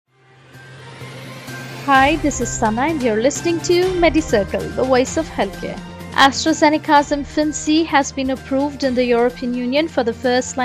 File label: english news